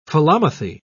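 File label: "philomathy", British English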